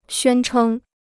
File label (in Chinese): "宣称", Chinese